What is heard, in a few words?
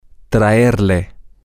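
to bring you, Spanish, male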